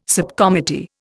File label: "subcommittee", Indian